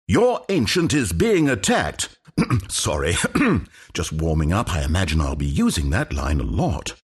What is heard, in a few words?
announcer count battle; dlc stanleyparable